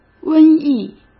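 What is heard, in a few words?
"瘟疫", Mandarin Chinese; chinese-flashcards; hsk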